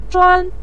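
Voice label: "zhuan", Mandarin Chinese syllable, neutral tone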